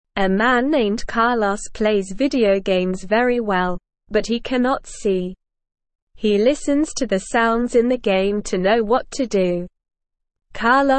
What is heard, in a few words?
Beginner SLOW Reading, English; English Newsroom